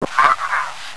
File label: negative, radio zombie male